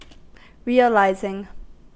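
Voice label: "realizing", US English; pronunciations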